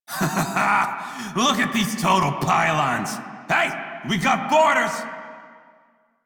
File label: Crew Enemy Spot Male en; Dialog; Warframe; Railjack Crew; Red Veil